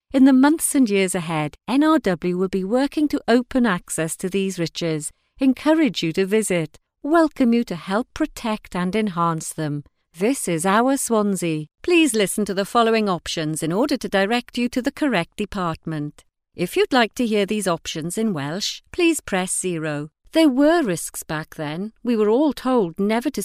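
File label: British English, General; GoLocalise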